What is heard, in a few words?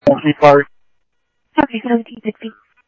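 Mesa Police Department, scanner audio; Mesa Simulcast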